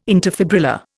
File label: "interfibrillar", Indian